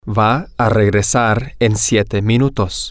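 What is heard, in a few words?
Spanish, male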